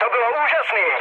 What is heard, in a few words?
trick, speech cze, mood low; War Thunder